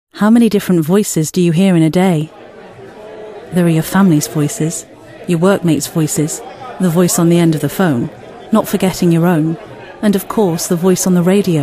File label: Commercial; Amnesty